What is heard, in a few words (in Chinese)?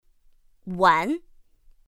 "wán", pinyin; pinyin2; pinyinji